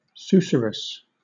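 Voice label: "susurrus", British English; pronunciations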